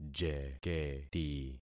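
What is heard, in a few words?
"jeketi", concatenated syllables; Affixing experiment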